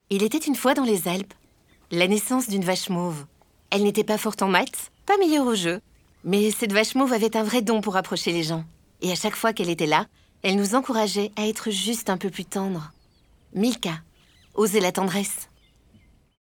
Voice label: french narration